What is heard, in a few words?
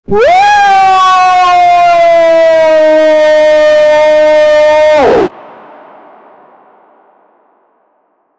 a hyped up voice yelling; meme